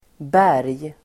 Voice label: "berg", Swedish